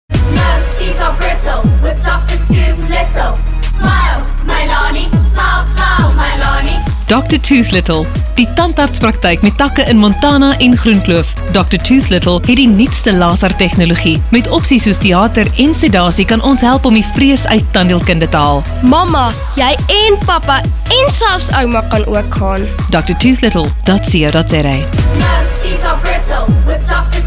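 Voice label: RADIO ADVERTISEMENTS; DR TOOTHLITTLE; VOICE-OVER WORK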